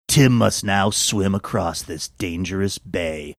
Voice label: saying tim must now swim across this dangerous bay gruff voiceover